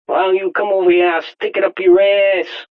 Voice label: Stick It Up Ass, Attitude, Male; VoiceBox

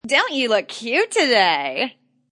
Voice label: Woman Says Don't You Look Cute Cheesy; Talking

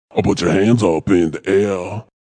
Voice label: Vocal Deep

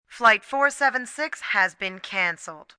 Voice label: Cancel, Airport, PA, announcements, female; VoiceBox

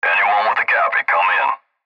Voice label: Cb Trucker Radio; Radio Voice; Blastwave

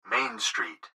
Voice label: Gps Voice, Male; Main Street; Speaker Adult Male; Recorded Voice; Blastwave

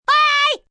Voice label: Bye Yell M, Goodbyes, male; Yelling; VoiceBox

